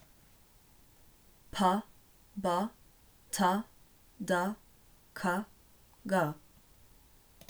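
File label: English stop a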